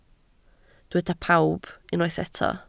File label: "pawb", Welsh